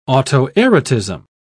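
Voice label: "autoerotism", British English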